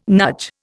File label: "nudge", Indian